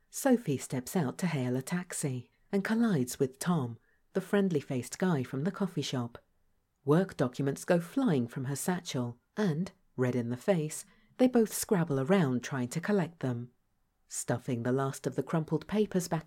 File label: Audio Description Neutral